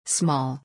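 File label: "Small", English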